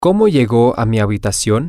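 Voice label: how do I get to my room, spanish, male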